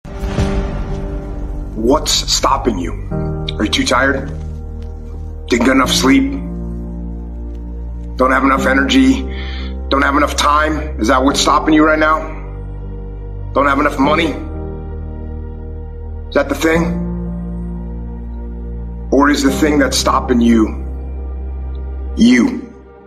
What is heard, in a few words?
Motivational Speech Motivational Virtue